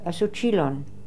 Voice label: "Asoochilon", Beelzebub pronounciations; Fourth Way

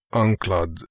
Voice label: "Anklad", pronounciations; Fourth Way; Beelzebub

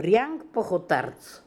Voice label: "Riank Pokhortarz", pronounciations; Fourth Way; Beelzebub

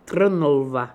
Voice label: "Trnlva", pronounciations; Fourth Way; Beelzebub

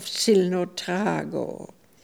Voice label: "Zilnotrago", pronounciations; Fourth Way; Beelzebub

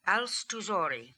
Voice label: Alstoozori, Beelzebub pronounciations; Fourth Way

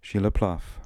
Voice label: "Shila Plav", pronounciations; Fourth Way; Beelzebub

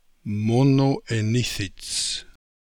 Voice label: "Monoenithits", pronounciations; Fourth Way; Beelzebub

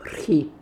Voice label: Rkhee, Beelzebub pronounciations; Fourth Way

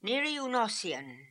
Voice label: "Nirioonossian", pronounciations; Fourth Way; Beelzebub

